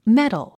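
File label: "metal", English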